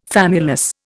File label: "famulus", Indian